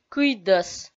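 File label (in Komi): "кыйдӧс", Komi, female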